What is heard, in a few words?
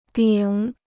"丙", Chinese